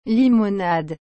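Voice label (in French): "limonade", French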